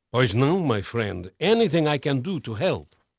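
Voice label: Portuguese male, voice; Pirates Of The Caribbean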